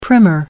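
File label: "primer", British English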